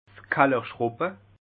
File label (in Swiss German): "kallerschrubbe", alsace